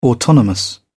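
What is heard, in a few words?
"autonomous", English, British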